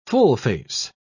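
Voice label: "full-face", British English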